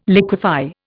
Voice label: "liquify", Indian

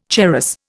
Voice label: "charas", Indian

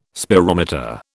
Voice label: "spirometer", British

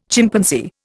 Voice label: "Chimpanzee", Indian

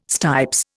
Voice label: "stipes", Indian

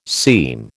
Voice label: "seam", American

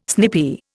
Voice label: "snippy", Indian

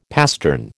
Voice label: "pastern", American

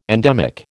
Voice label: "endemic", American

